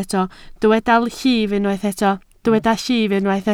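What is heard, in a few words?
"llif" Welsh, spoken word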